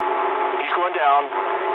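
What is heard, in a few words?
aircraft destroyed mood low, speech English; War Thunder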